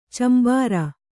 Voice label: "ಚಂಬಾರ", Kannada